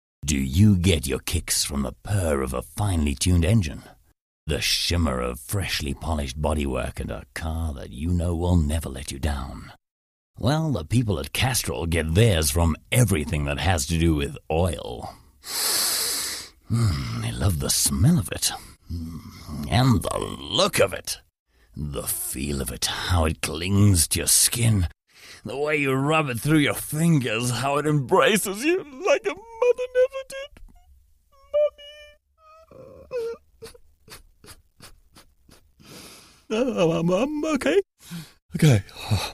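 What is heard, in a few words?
English South African, Narrative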